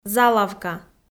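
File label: "залавка", Komi, female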